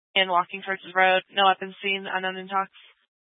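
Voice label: Police Dispatch, scanner audio recording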